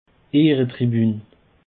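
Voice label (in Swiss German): "ehretribun", Bas Rhin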